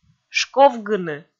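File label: "шковгыны", Komi, female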